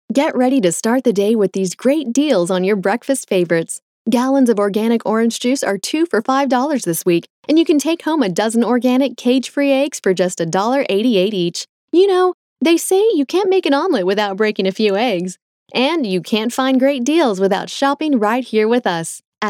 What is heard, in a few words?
Casual Conversational